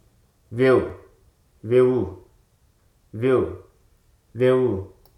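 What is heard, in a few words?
"keep", English, Hruso dictionary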